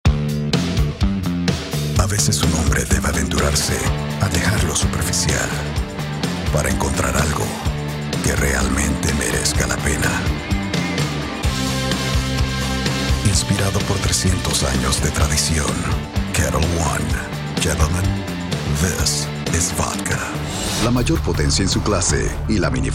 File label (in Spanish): Spanish; Commercial Demo; Voiceover